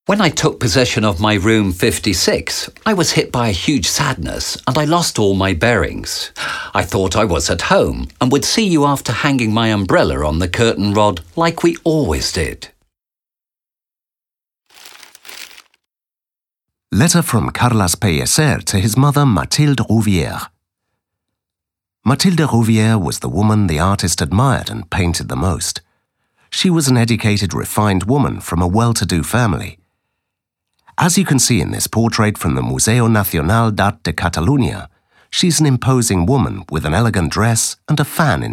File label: audioguia english